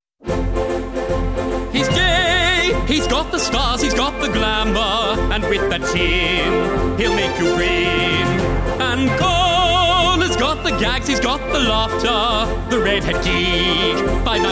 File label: Comedy Channel Talk Show Promos